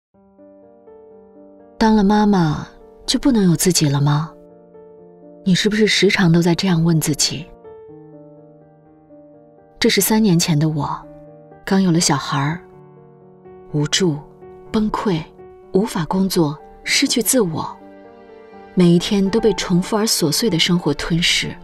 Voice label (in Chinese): 广告自然讲述 年轻妈妈自述; 每日鲜语